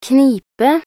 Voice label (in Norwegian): "knipe", nb_NO